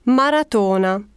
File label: italian marathon; samsung YP-U5; Audio User Interface